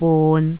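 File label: "bun6", Cantonese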